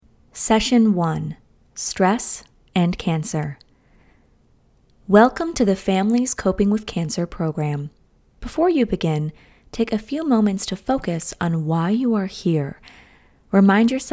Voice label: eLearning; Families Coping With Cancer

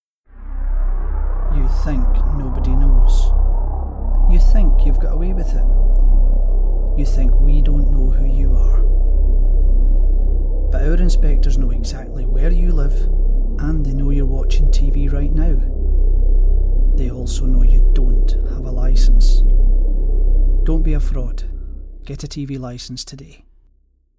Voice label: Public information advertisement

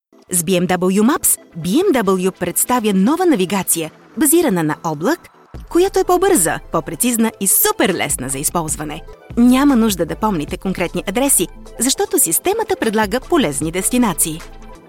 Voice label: explainer promo video Bulgarian; BMW

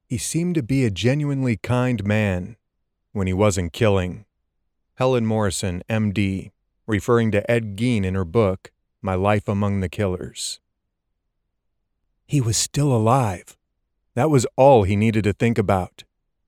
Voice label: Audiobook Fiction

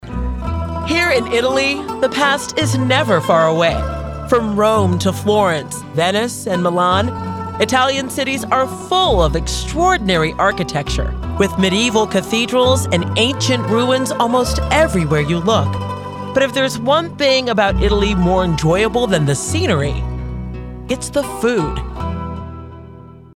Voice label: Podcast

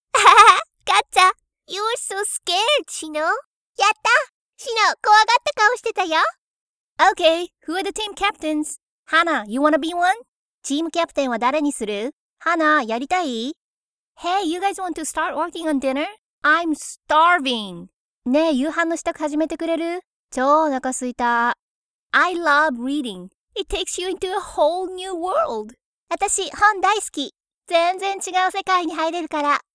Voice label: Anime Voice-over JA ENG

